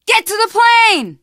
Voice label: arrive plane, teen girl, survivor voice; source engine; left 4 dead 2